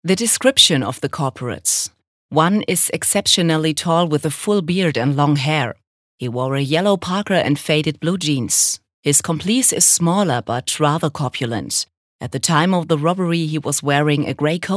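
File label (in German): English UK Kommentar Neutral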